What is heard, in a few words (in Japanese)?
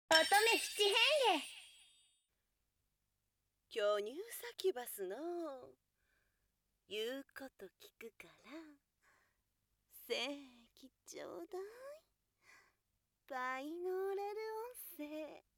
タイトルコール